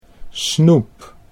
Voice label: "snoep", Dutch